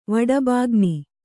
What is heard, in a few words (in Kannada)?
"ವಡಬಾಗ್ನಿ", Kannada